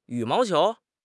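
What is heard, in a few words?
"yu3 mao2 qiu2", Chinese; HSK4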